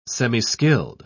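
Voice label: "semiskilled", English, British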